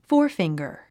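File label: "forefinger", English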